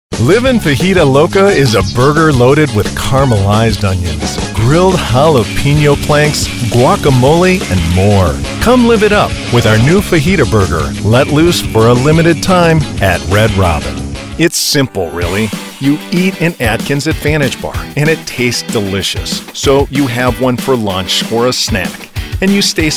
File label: COMMERCIAL